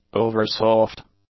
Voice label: "oversoft", English; adjectives

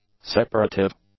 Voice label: "separative", English; adjectives

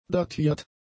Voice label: "datiert", German; adjectives

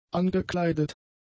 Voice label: "angekleidet", German; adjectives

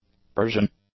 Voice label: "Persian", English; nouns

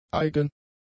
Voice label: "eigen", german; adjectives

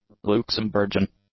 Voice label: "Luxemburgian", english; adjectives

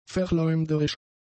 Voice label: "verleumderisch", German; adjectives

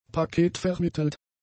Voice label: "paketvermittelt", German; adjectives

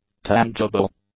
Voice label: "tangible", English; adjectives

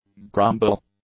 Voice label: "grumble", English; nouns

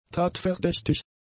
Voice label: "tatverdächtig", German; adjectives

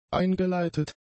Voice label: "eingeleitet", German; adjectives

